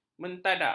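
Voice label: "mentadak", Malay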